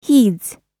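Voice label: "heeds", American English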